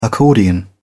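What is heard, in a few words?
"accordion", British English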